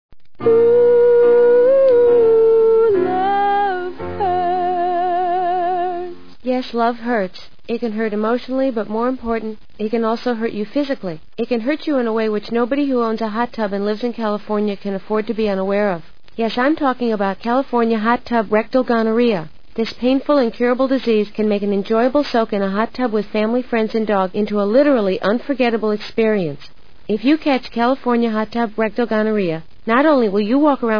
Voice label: Commercials hottub